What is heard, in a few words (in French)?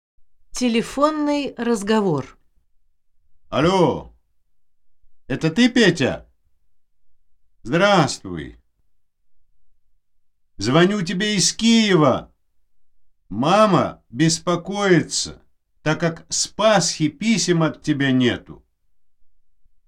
conversation telephon; 2006 RusseRevolution